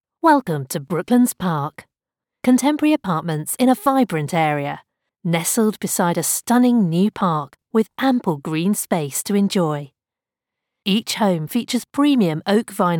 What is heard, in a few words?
Property explainer warm eloquent modern; Brooklands